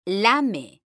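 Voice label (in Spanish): "LAME", female; mekanta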